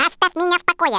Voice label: leave me alone, Russian Speech